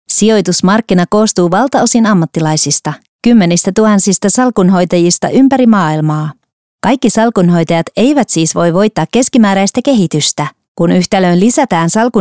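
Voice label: Finnish, female, eLearning; voice over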